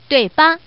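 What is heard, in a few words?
Woman, pair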